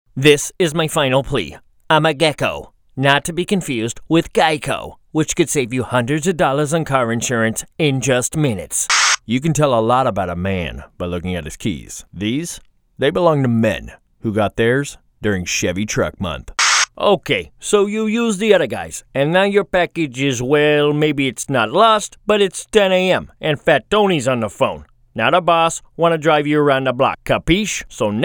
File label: dry commercial